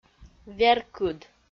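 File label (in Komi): "веркыд", Komi, female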